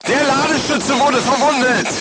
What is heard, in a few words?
voice message charger wounded, crew tanks german